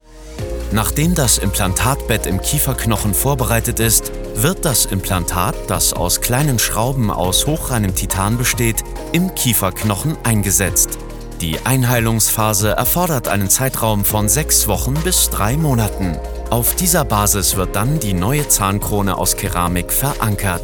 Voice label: Erklaerfilm Patienteninformation

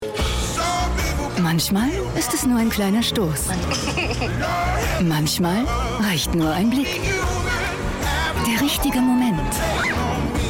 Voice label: herzkinotrailer; zdf

